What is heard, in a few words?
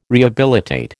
"rehabilitate", American